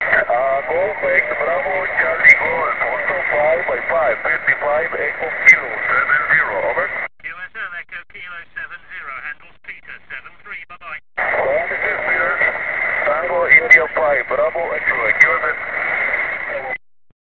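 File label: amateur radio contact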